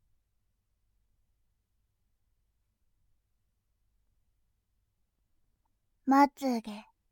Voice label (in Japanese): 「まつ毛」, ASMR